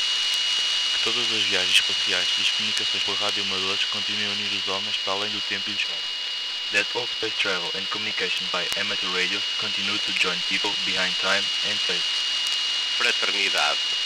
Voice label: arissat portuguese